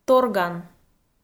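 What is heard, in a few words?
"торган", Komi, female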